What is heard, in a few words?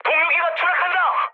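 Korean aircraft destroyed mood medium; speech; War Thunder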